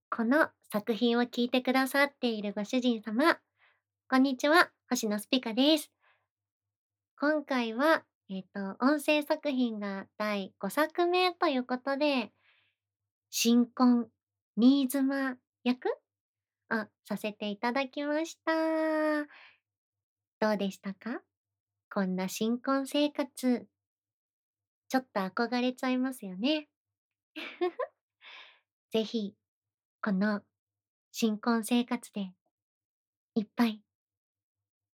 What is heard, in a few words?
Freetalk, ASMR